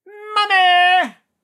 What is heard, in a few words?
battle chatter